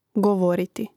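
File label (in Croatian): "govoriti", hrvatski; mreznik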